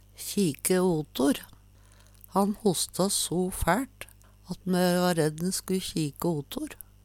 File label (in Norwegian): kjike otor, Numedalsmål; Uttrykk